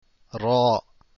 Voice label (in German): Ra, Aussprache arabisch; ر (Ra)